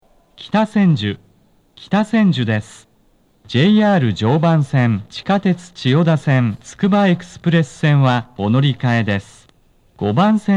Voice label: 到着放送 【男声(東武鉄道)】, 放送は「南栗橋行」です。; 5番線 南栗橋方面

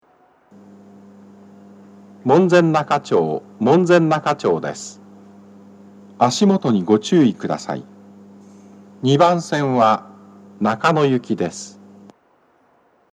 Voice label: 到着放送, 男声, BOSE天井型での収録です。; 2番線 中野・三鷹方面; 放送は「中野行」です。

